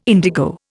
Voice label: "indigo", Indian